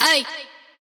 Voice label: "Ayy" Vox; Cymatics; PHARAOH Beta Pack

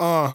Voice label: "Uh", Dry Chant, Vocal; Cymatics; Euphoria Vocal Sample Pack; Chants

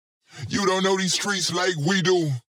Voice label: Processed Phrase, Streets, 90 BPM; Vocal Phrases; Cymatics; Euphoria Vocal Sample Pack

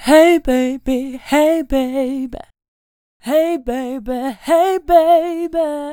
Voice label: Hey Baby - C Major, Vocals, Phrases; GhostHack

